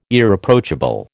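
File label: "Irreproachable", American English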